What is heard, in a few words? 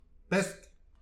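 "pesk", Breton; petit precis de prononciation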